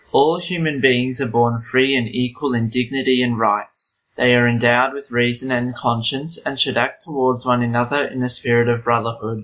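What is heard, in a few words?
Australian English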